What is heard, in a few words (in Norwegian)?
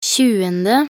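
"tjuende", Norwegian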